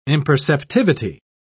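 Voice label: "imperceptivity", British English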